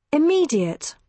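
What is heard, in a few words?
"immediate", British English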